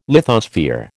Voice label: "lithosphere", American

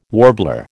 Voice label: "warbler", American

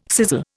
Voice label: "sizzle", Indian

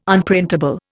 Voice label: "Unprintable", Indian

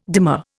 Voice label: "demur", Indian

